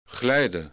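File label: "glijden", Dutch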